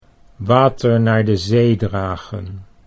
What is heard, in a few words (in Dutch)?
"water naar de zee dragen", Dutch